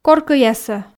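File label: "коркӧясӧ", Komi, female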